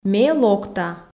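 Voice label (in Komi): ме локта, Komi, female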